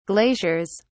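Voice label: "glaciers", English, British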